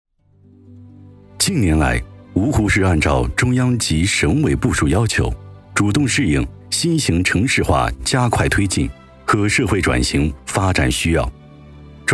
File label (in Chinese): 专题城市宣传芜湖